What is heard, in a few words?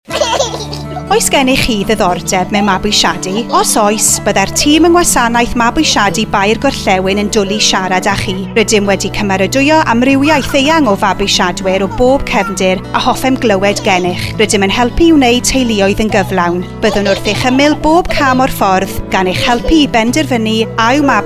Welsh